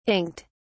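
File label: "inked", British English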